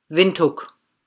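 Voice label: "Windhoek", German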